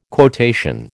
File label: "quotation", American